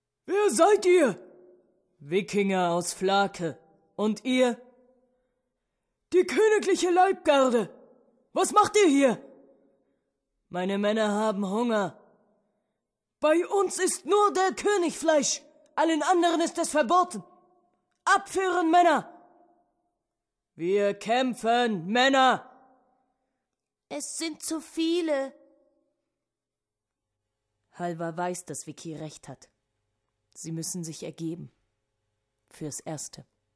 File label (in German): Comic; Wicky